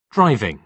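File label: "driving", British English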